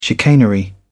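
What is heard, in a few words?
"chicanery", British English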